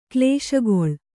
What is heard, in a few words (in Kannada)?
"ಕ್ಲೇಶಗೊಳ್", Kannada